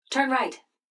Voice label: GPS turn right fast; Blind Drive